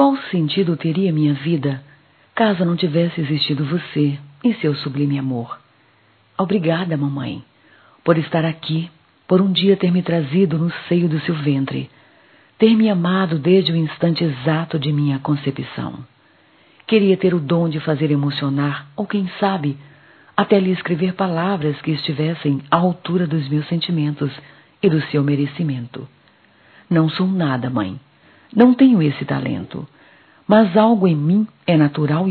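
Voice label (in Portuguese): filha para mãe, telemensagens, Dia das Mães; Telemensagens 2017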